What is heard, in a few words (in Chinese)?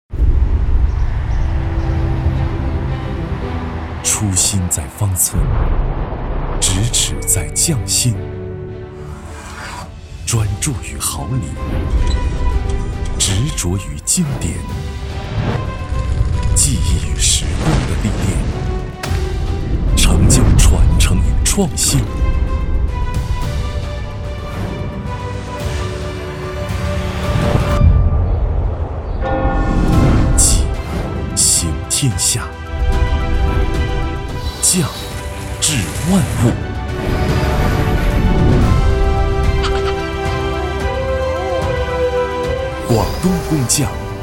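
男【宣传形象片】; 广东卫视《技行天下》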